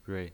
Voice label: "rui0", pinyin